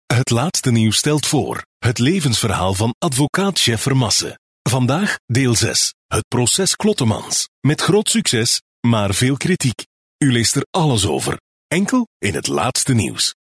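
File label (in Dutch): Flemish Male Sample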